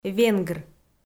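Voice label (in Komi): "венгр", Komi, female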